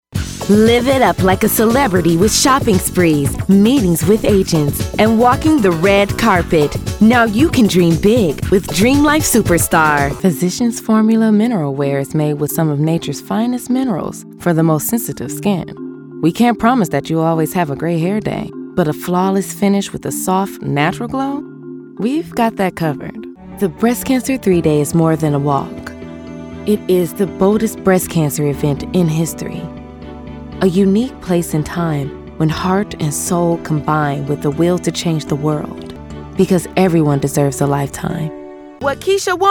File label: commercial, commercial women